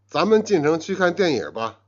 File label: "jian", Chinese